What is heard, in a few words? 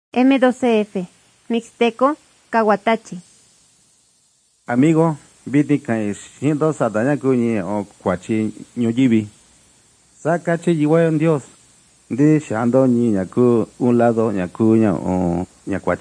mixtec diagnostic spanish mexico